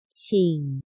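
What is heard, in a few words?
"请。", Chinese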